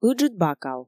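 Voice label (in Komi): ыджыд бакал, Komi, female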